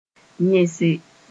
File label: "yẽse", moore